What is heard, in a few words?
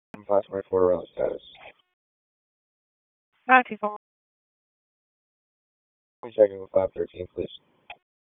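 Police Dispatch; Raleigh Police Southeast District Disp; wake; p25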